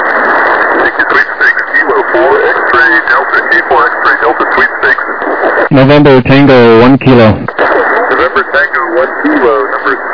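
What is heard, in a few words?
upper sideband 14MHz; SSB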